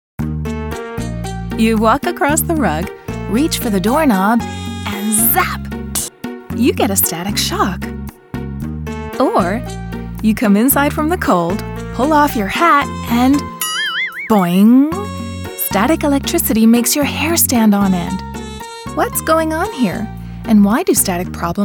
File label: narration